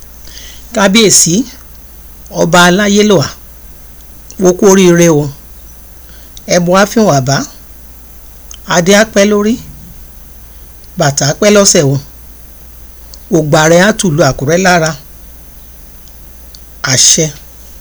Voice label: Kí kí Ọba ni èdè Àkúrẹ́ Congratulatory message in Akure Dialect